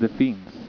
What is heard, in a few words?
"det finns", Swedish